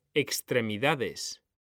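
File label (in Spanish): "extremidades", Spanish; mekanta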